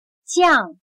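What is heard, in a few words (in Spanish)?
"降", chino mandarin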